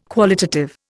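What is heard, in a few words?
"qualitative", Indian English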